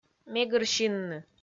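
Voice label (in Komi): "мегыр синны", Komi, female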